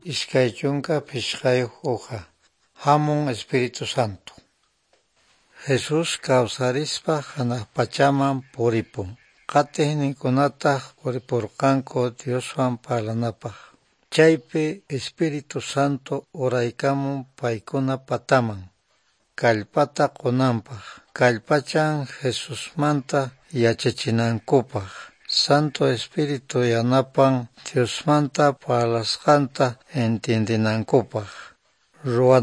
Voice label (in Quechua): good news quechua norte de bolivia apolo